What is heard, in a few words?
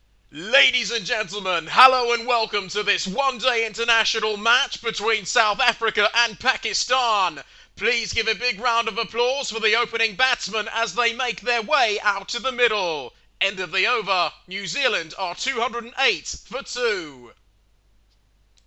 english voice